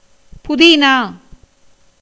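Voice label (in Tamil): "புதீனா", Tamil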